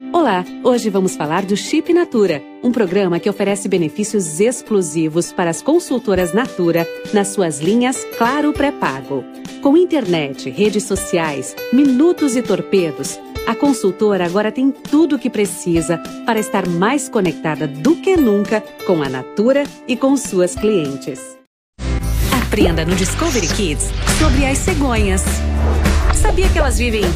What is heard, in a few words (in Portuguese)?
Locutora